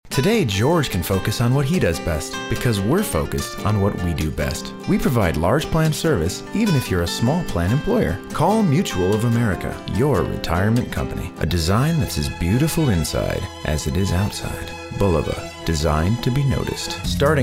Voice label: Commercial